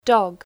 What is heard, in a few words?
"Dog", English